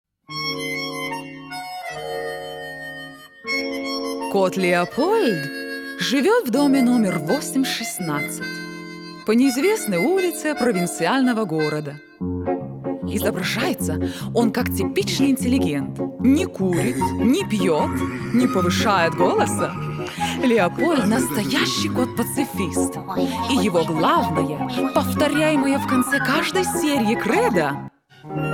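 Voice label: russian female commercial; voice demos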